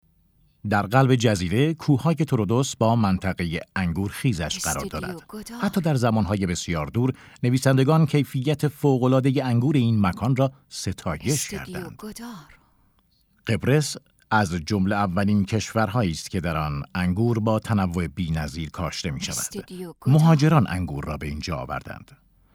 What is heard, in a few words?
documentary